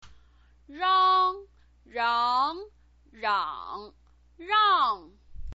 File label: "rang", Chinese pinyin voice